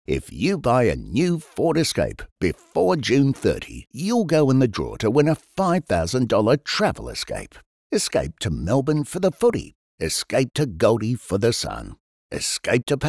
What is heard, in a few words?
WARM FRIENDLY